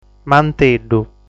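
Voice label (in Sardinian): "Manteddu", Sardinian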